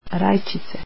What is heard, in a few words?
"tomatoes" croatian